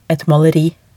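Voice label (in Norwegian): "et maleri", Norwegian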